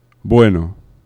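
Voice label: "bueno", Spanish; laboratori fonetica; oclusives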